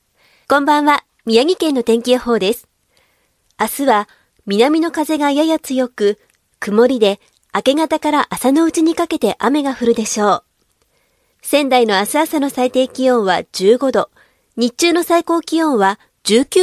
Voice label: Japanese Weather Forecast F